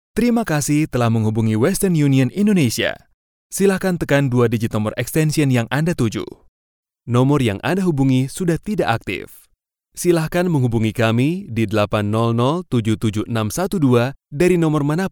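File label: Indonesian male ivr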